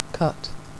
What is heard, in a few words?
"cut", English phonetics